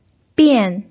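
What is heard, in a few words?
"bian", pinyin, fourth tone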